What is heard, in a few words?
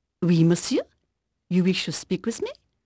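French female; Pirates Of The Caribbean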